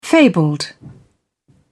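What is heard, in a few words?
"fabled", British English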